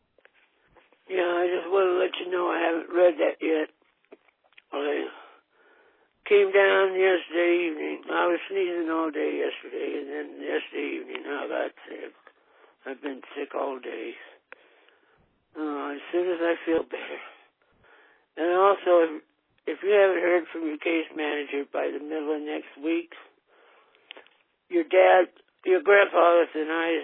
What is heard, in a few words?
Voicemail